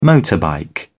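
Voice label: "motorbike", British English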